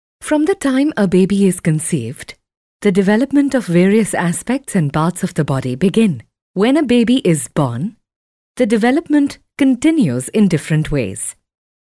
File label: Female Indian